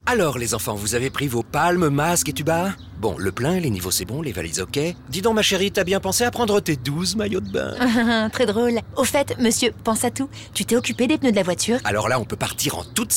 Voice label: comedie couple et V. Off

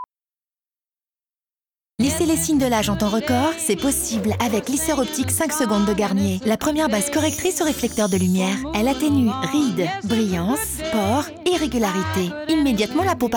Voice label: douce et rapide, voix off comédienne; Garnier Lisseur Optique

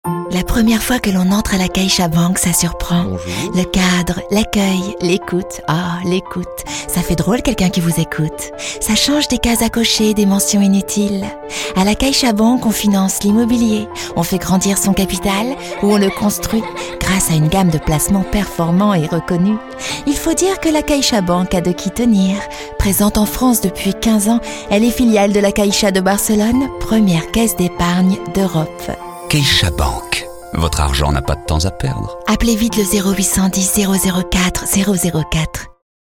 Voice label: narration douce pour soi; Caixa

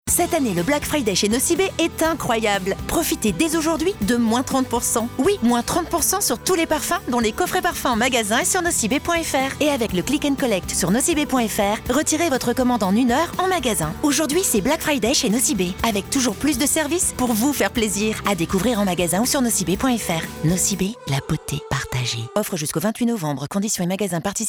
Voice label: V. off signature speed et chuchotee; RTL; Nocibe; BFriday

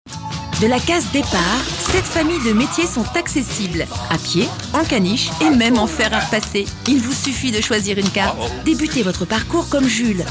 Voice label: enthousiaste informative

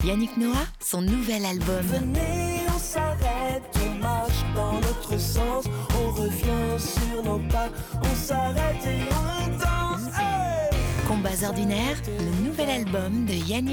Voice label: souriante

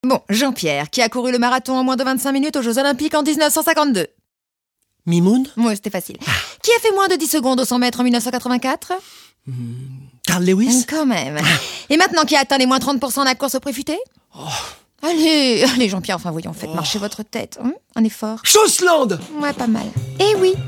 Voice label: pas cool et promo girly; Chaussland

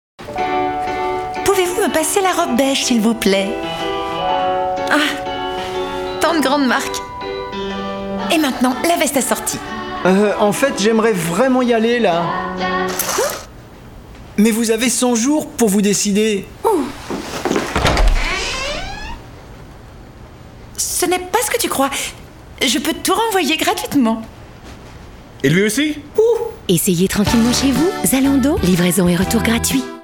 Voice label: comedie gaffeuse; Zalendo

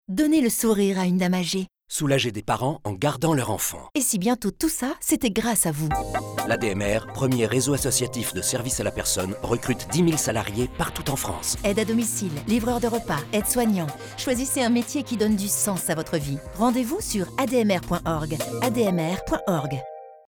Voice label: Spot Radio Service à la personne; ADMR

